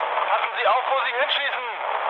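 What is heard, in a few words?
German player friendly fire mood low, speech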